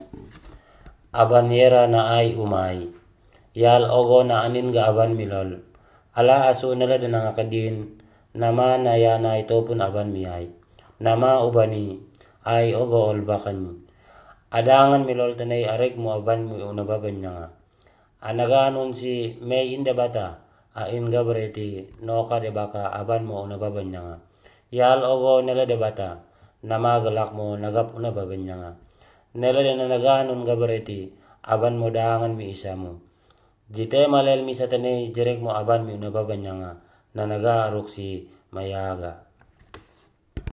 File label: KUI